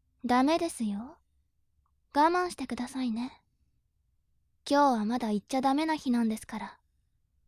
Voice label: 調教中音声

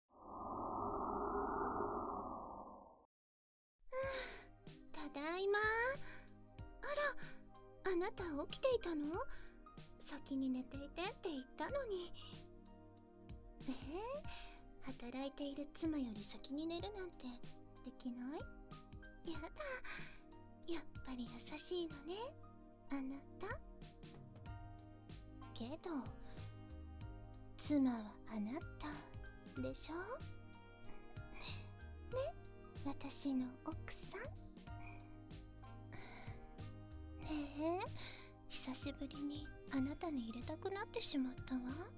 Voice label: ダブル授乳で一家団らん 効果音 BGM入り; エンディング

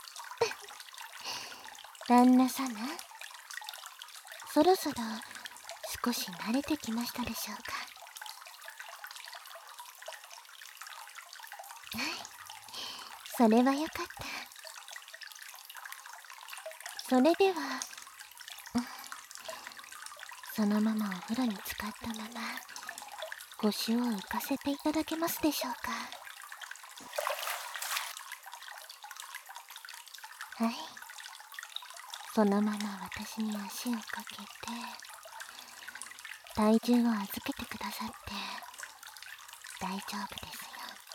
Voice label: お風呂の中で潜望鏡フェラ（バイノーラルマイク収録 効果音あり大きめ）

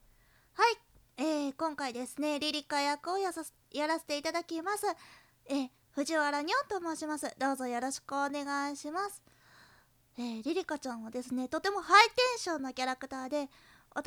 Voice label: ASMR, フリートーク; リリカちゃん; 演じてみた感想・キャラPR

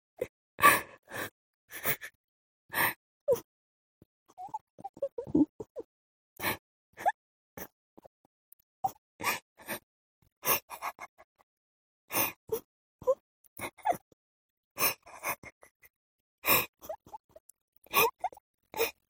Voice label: mob 女子 台詞

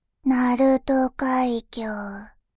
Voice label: 鳴門海峡, バイノーラル右

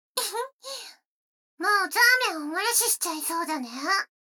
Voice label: 女の子 台詞

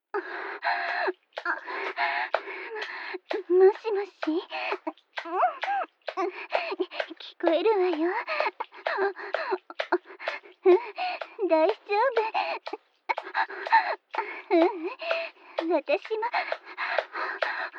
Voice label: 電話越しの声

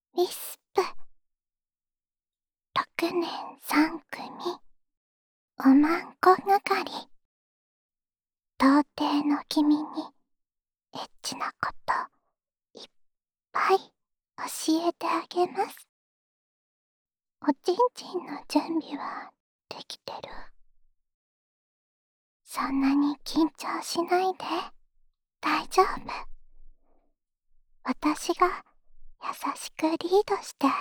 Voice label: タイトルコール, ASMR

